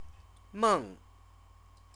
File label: "meng", Chinese